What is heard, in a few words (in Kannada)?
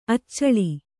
"ಅಚಲೆ", Kannada